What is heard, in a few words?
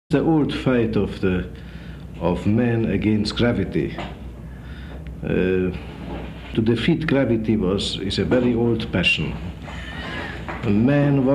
architects Spoken Word, mono; breuer; city of the future